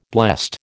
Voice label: "blessed", American